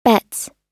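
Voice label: "bets", US English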